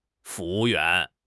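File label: "fu2 wu4 yuan2", Chinese; HSK; HSK2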